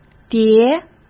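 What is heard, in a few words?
"叠", Mandarin Chinese; chinese-flashcards; hsk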